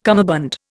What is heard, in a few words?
"cummerbund", Indian